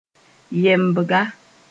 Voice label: "yɛmbga", Mooré; slave